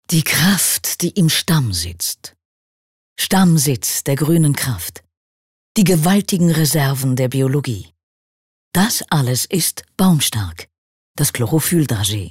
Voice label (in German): Werbung Kraftvoll